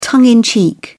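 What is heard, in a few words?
"tongue in cheek", British English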